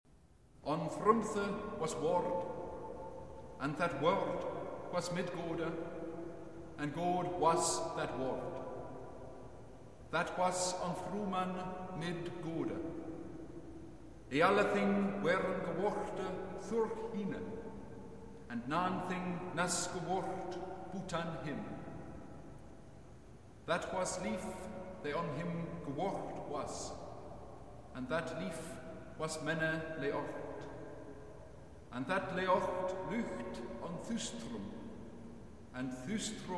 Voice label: reading (Old Saxon); A Medieval Christmas; On frymde waes Word